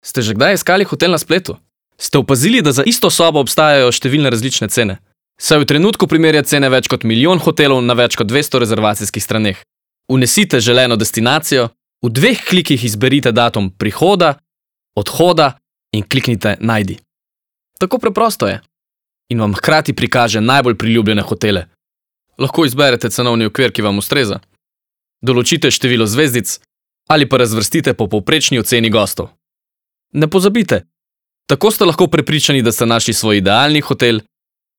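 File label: slovenian male commercial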